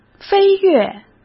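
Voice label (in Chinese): "飞跃", Mandarin Chinese; hsk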